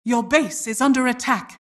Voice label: announcer base under attack

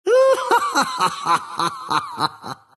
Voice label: monkey laugh; dota; monkey king

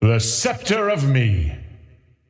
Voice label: scepter announce in game; dota

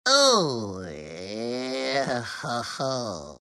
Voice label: happy; shredder

